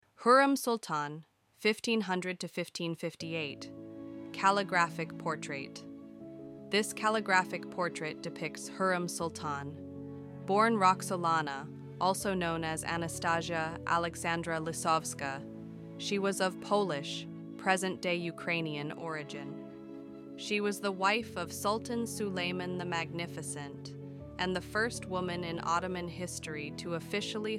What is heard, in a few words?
ENG Music; Hurrem Sultan; art collection